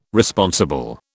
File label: "Responsible", British